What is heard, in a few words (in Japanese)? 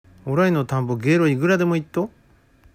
「げーろ」, 旭弁 銚子弁; 蛙(かえる); 「おらいの田んぼげーろいぐらでもいっと」; （訳）ウチの田には蛙がいくらでもいるよ